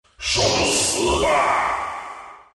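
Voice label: attack, chinese; dota2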